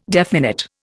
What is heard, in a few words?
"definite", Indian